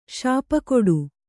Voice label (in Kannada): "ಶಾಪಕೊಡು", Kannada